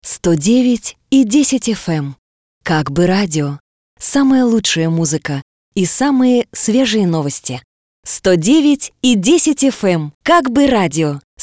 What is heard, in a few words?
Jingle, voices